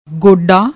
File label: "goddaa", Punjabi